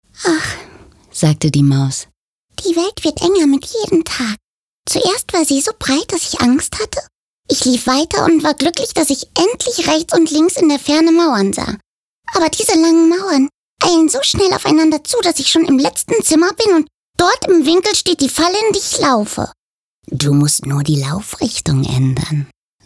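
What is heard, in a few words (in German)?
Erzählung Maus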